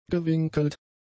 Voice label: "gewinkelt", German; adjectives